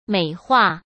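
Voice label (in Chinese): "美化", Mandarin Chinese; hsk; chinese-flashcards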